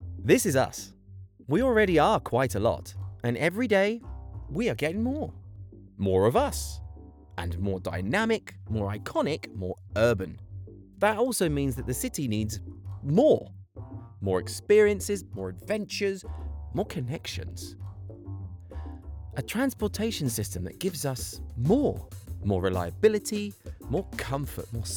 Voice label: imagefilm; This is us

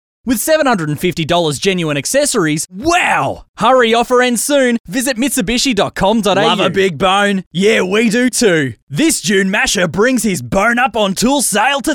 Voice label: hard sell

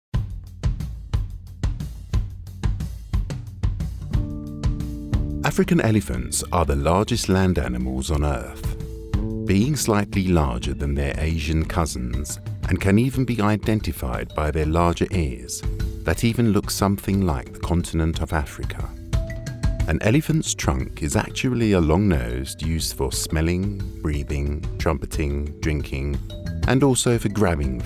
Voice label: Wildlife Documentary

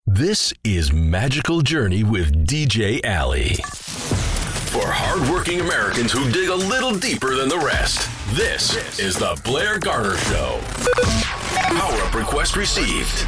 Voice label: DJ and Radio

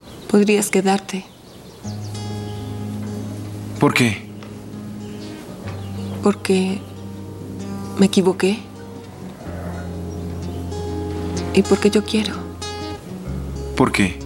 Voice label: NATURAL SPEAKING

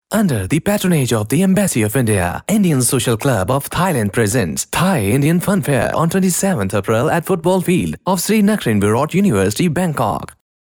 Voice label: English sample vo; Bankok

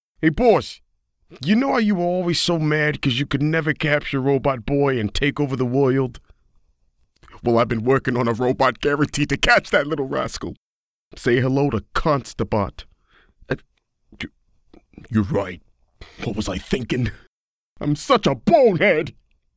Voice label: Character Voice; Bonehead

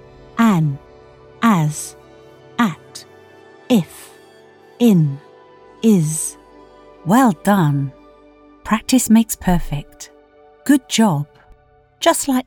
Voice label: Lovely Voice E-Learning

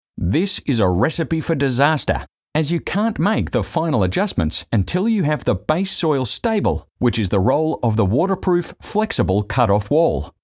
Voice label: Aussie Bloke

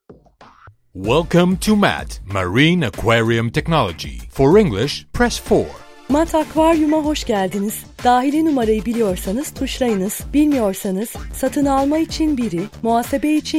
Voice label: ENG TURK; TURKEY

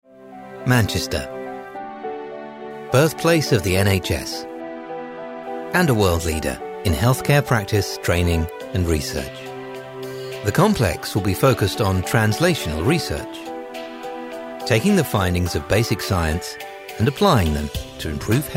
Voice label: Corporate NHS

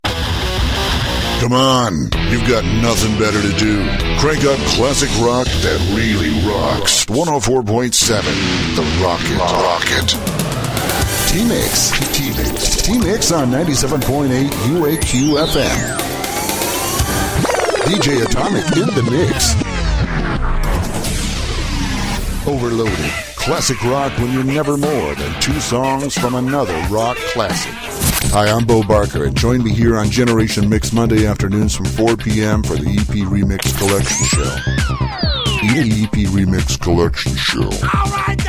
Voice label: Radio Imaging

